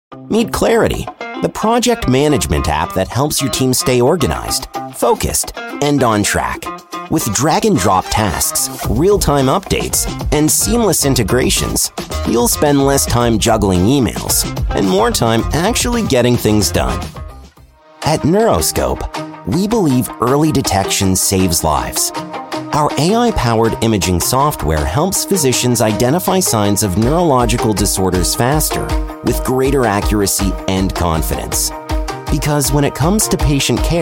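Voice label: explainer, Englisch - Nordamerika, Männlich Junger Erwachsene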